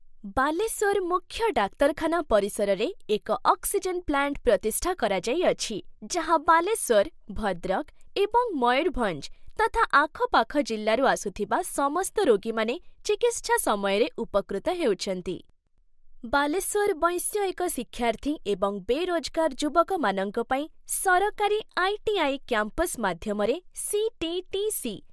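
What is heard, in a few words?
Oriya Explainer; voice demos; GoLocalise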